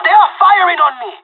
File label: radio taking fire